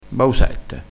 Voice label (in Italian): "bausette", dialettale